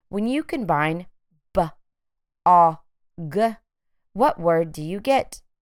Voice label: b ŏ g phonemic blending